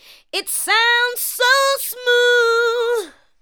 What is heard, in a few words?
ADLIBS 90; Ueberschall; Jam Box